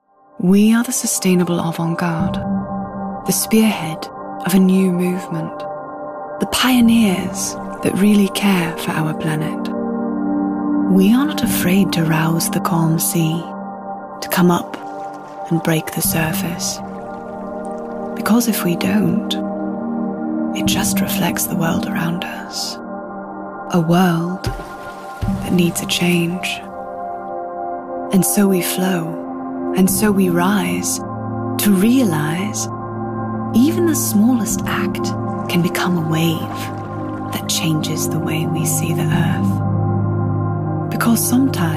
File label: Commercial Neubau Water